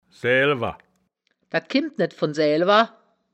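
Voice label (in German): "saeaelwer", wittlicher woerterbuch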